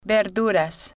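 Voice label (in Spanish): "verduras", Spanish, female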